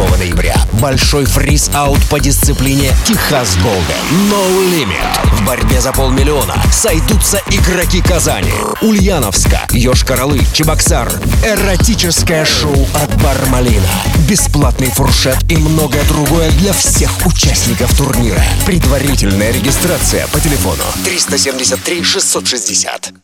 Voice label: Информационный ролик покер

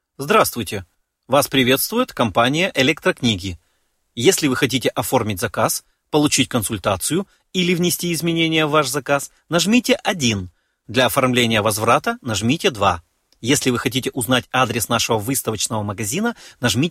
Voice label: ГОЛОСОВОЕ МЕНЮ

